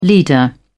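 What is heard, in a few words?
"leader", English, British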